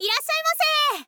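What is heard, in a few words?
"irasshaimase", Japanese, girl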